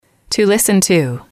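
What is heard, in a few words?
to listen to, English, female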